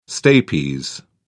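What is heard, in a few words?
"stapes", British English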